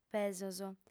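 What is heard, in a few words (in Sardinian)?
"pesos", female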